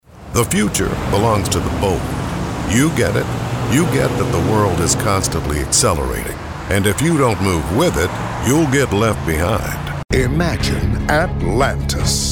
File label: Commercial